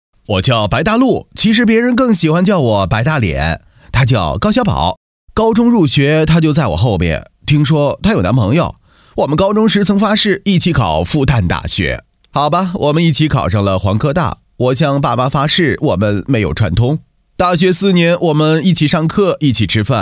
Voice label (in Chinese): 专题 小清新, 国语男声配音; 白路婚礼